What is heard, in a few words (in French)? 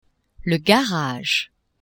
"garage", French; maison